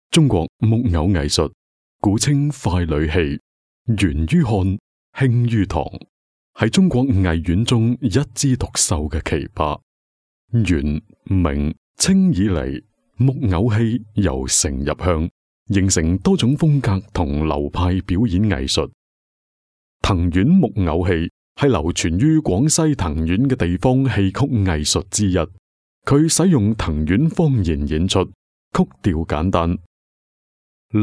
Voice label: 纪录片 自然科教 成熟, 男粤; 粤语; 木精灵

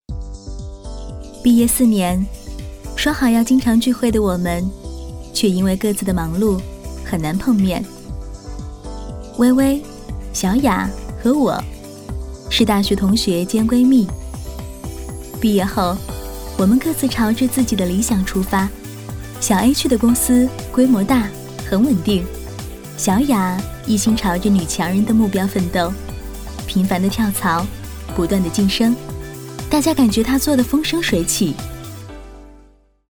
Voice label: 旁白 时尚; 肯德基

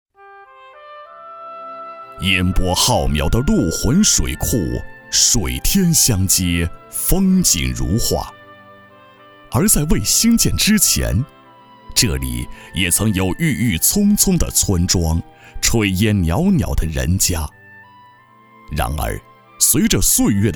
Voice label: 专题 政府 水库移民纪实 舒缓, 男; 嵩县陆浑

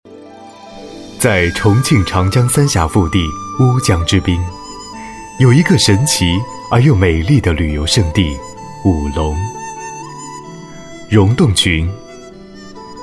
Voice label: 宣传片 旅游 时尚; 武隆旅游专题

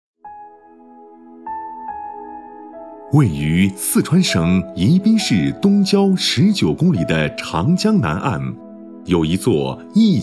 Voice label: 男国 宣传片 城市 舒缓; 李庄

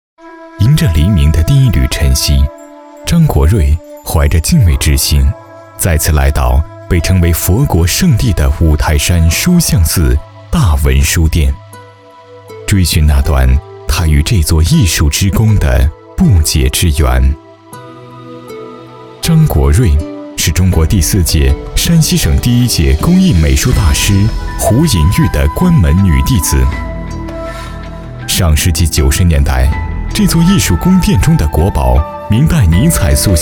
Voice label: 纪录片 人物 浑厚, 男; 方寸万象

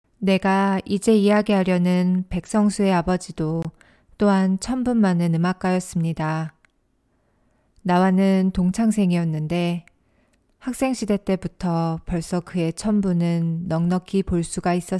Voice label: 韩语女; 外语; 小语种

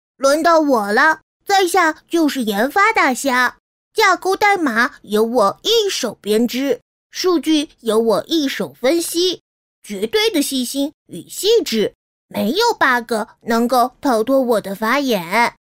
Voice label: 童声 男童 音色3

